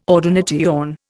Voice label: "ordination", Indian

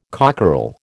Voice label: "cockerel", American

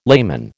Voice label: "layman", American

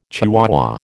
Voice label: "chihuahua", American

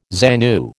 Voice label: "ZANU", American

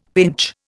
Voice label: "pinch", Indian; Dictionary

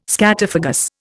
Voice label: "scatophagous", Indian

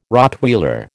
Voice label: "Rottweiler", American

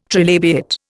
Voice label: "trilabiate", Indian

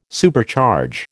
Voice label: "Supercharge", American

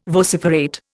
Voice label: "vociferate", Indian

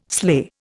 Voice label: "sleigh", Indian

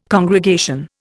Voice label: "congregation", Indian

